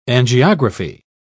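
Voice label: "angiography", British English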